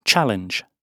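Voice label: "challenge", British English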